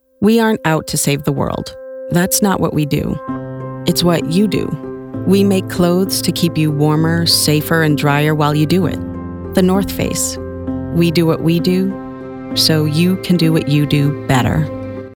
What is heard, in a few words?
Natural; The North Face; Earnest